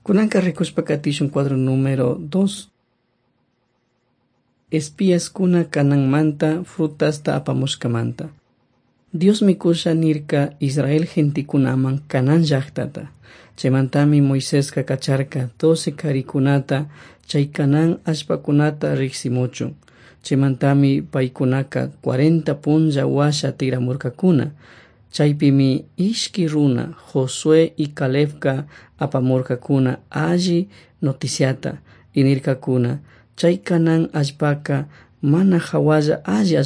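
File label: quichua chimborazo, drama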